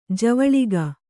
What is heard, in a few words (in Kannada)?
"ಜವಳಿಕ", Kannada